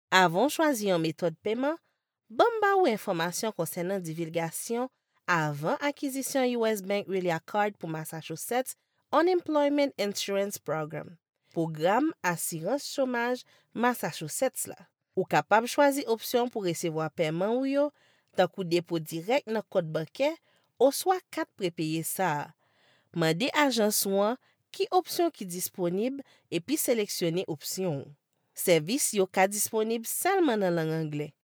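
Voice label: Haitian Creole French, female, voice over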